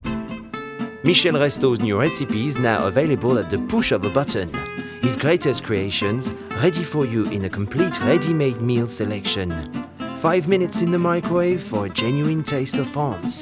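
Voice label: English French Accent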